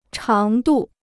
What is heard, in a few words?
"长度", Chinese